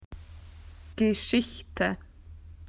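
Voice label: "story", Australian English; PictureDictionary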